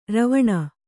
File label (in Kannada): "ರವಣ", kannada